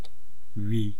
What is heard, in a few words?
"rii", east cree syllabics